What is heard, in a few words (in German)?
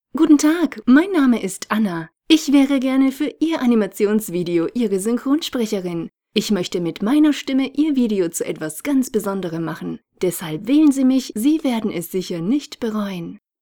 Hochdeutsch